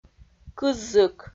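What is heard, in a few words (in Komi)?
"кыз зык", Komi, female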